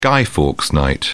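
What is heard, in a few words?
"guy fawkes night", British English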